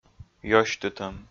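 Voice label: "ёсьтытӧм", Komi, male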